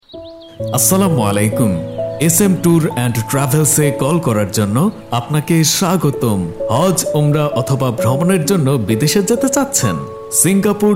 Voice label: caller tune for tours and travels; SM International Caller Tune; কলার টিউন; Impress Voice